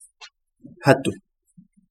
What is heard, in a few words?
stone, Kapingamarangi